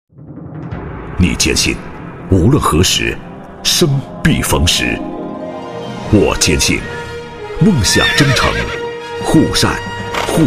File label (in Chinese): 广告 银行 力度; 广东发展银行好融通